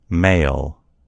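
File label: "mail", US English; gcompris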